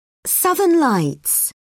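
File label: "southern lights", English, British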